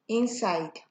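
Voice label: "inside", Nigerian Pidgin, female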